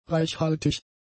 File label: "reichhaltig", German; adjectives